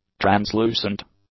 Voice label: "translucent", English; adjectives